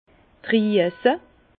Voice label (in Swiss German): "druese", Bas Rhin; vin